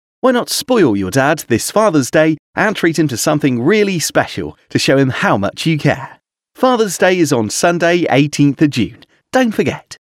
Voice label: UK Fathers Day Male